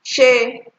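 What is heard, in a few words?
"shey", Nigerian Pidgin, female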